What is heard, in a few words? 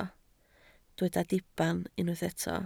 "diben", Welsh